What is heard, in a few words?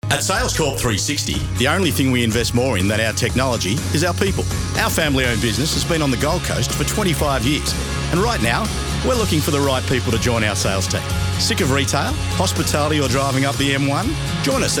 radio ad; SCM360; salescorp